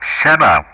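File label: "Shaba", English; Ka-50; Player Callsign; DCS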